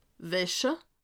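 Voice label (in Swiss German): "wasche", Bas-Rhin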